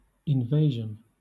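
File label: "invasion", British English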